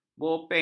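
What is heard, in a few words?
"bopeng", Malay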